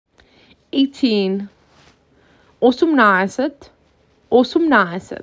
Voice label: MAC 6, macedonian phrasebook; the talking macedonian phrasebook

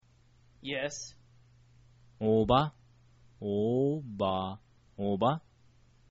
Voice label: yes, kyrgyz

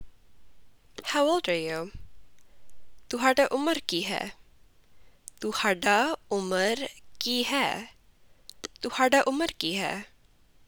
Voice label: Punjabi How old are you

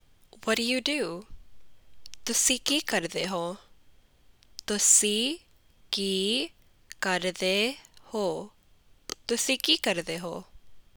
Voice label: Punjabi What do you do

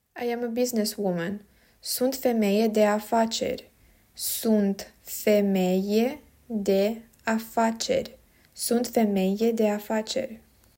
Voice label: I am a businesswoman, romanian

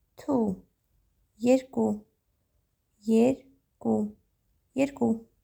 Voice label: "2 erku", Armenian

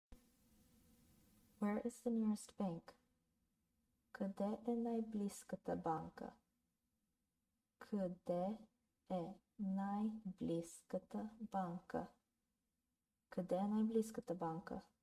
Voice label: Where is the nearest bank, Bulgarian; the talking bulgarian phrasebook

